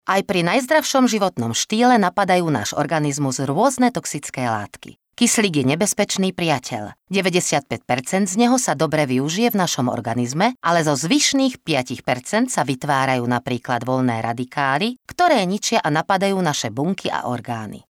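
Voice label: Female Slovak documentary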